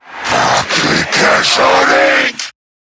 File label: Cover Me, en; Zariman Wraith